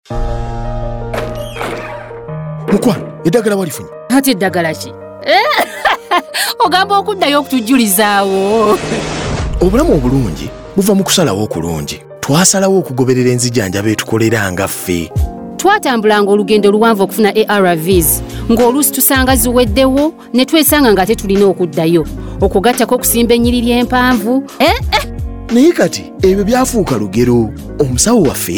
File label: Time Up HIV Spot DSD Luganda; radio spot